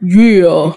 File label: "yeah" drag, voice